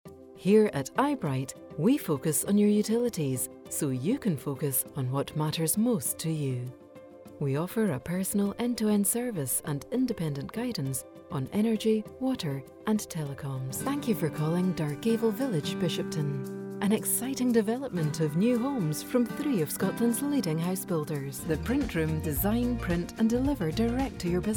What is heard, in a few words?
Telephone On Hold IVR